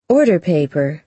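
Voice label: "order paper", American English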